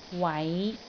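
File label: "wai5", Cantonese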